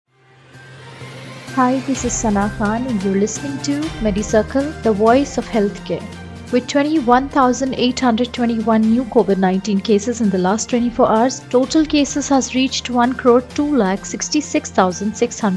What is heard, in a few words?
english news